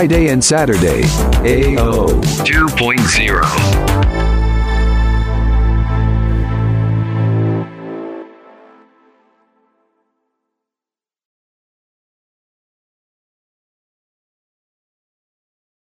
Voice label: PROMO WEEKEND MUSIC JAM